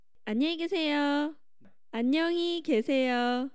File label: goodbye, Korean